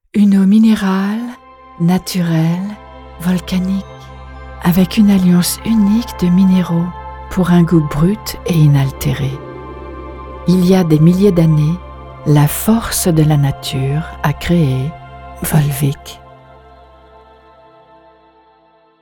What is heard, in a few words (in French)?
Pub envoutant